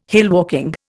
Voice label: "hillwalking", Indian